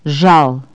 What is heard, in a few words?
pity, Bulgarian Consonants